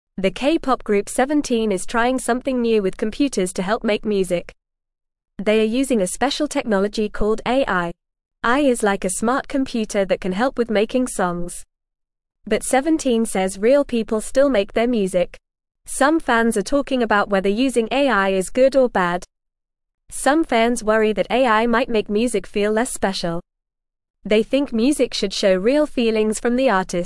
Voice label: English Beginner FAST Reading

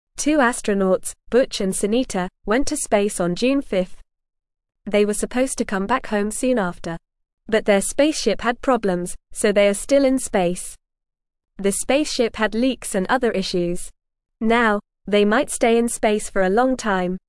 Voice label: English Newsroom Beginner FAST Reading; Astronauts Butch and Sunita Stay in Space Longer

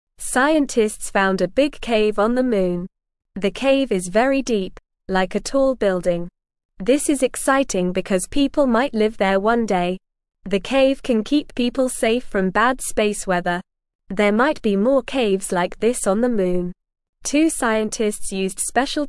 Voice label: English Beginner NORMAL Reading; Big Cave Found on the Moon for Future Living

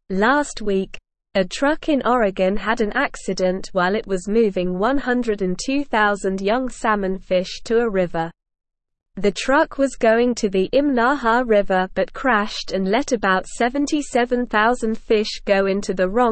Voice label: English Lower Intermediate SLOW Reading; Big Truck Accident Sends Baby Fish to Wrong River

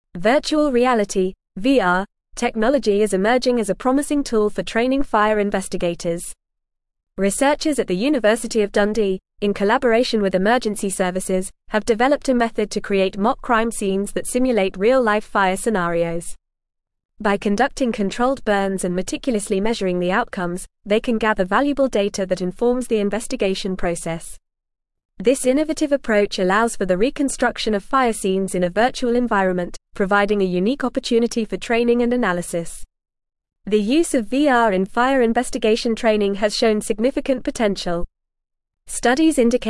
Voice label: English FAST Reading

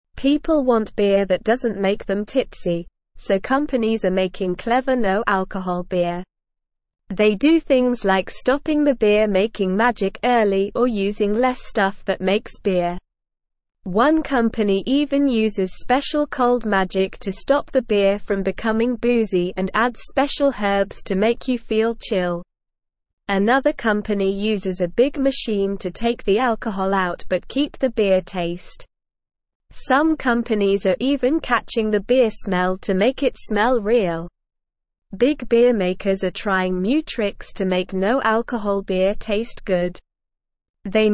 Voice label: English Newsroom Beginner SLOW Reading; Companies Make Clever No Alcohol Beer for Everyone

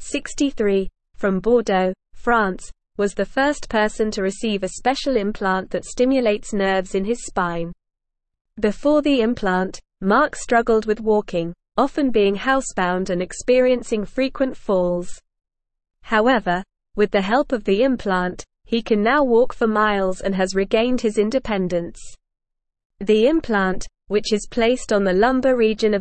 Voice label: English Newsroom Advanced NORMAL Reading; Implant Restores Ability to Walk for Parkinsons Patients

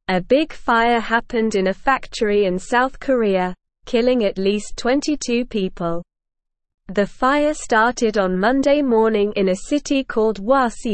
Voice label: English Newsroom Lower Intermediate SLOW Reading; Big Fire in South Korean Factory Batteries Exploded

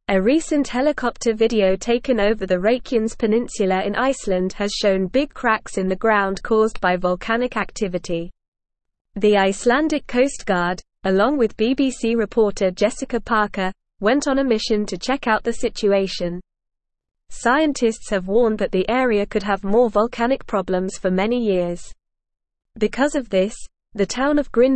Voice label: English Upper Intermediate NORMAL Reading; Icelandic Coast Guard captures volcanic cracks on; English Newsroom

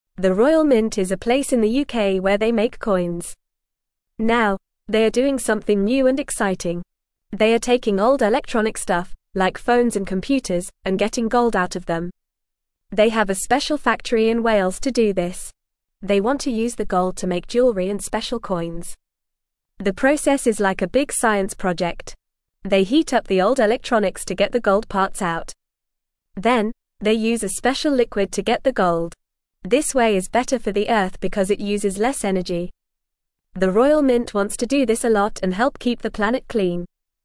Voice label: English FAST Reading; Royal Mint Makes Gold from Old Electronics

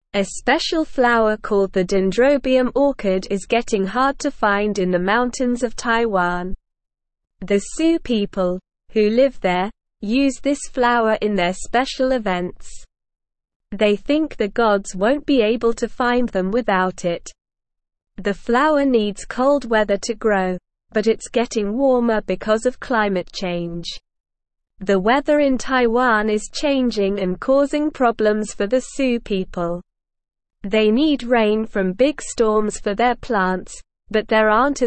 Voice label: Lower Intermediate SLOW Reading, English; English Newsroom; The Special God Flower Help Us Save It

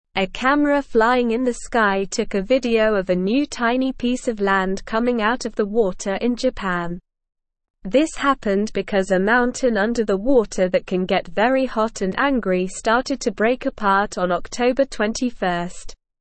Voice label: English Beginner SLOW Reading; New Land Appears in Japan After Underwater Volcano Erupts

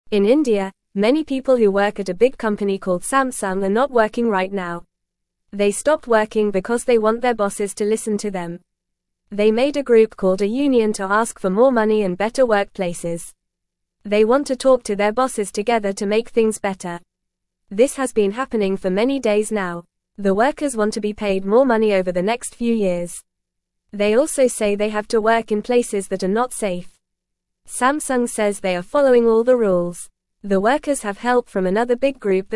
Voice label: English Beginner FAST Reading; Workers at Samsung in India stop working together

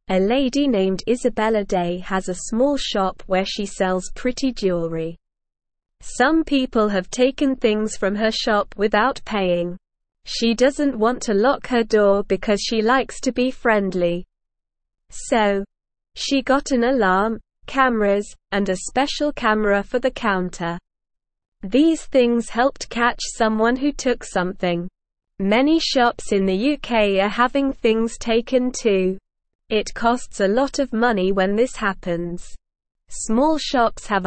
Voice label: English Beginner SLOW Reading; Shop Owner Catches Thief with Special Camera

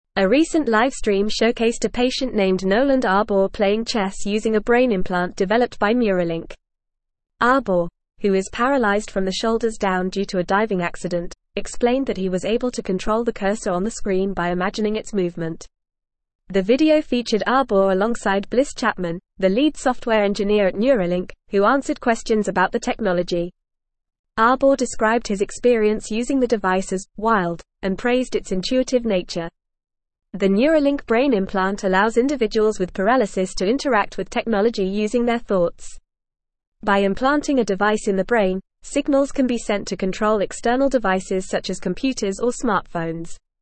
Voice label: English Newsroom Advanced FAST Reading; Paralyzed Patient Plays Chess Using Brain Implant

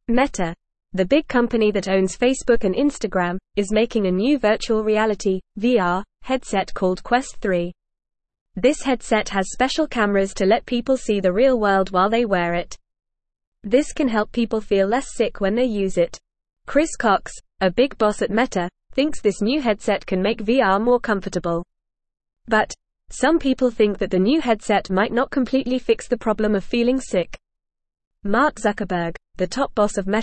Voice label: English Newsroom Beginner FAST Reading; Metas New VR Headset Helps with Feeling Sick

